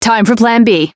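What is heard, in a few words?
Voice encounter fear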